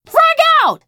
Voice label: FEMALE GRENADE; combatarms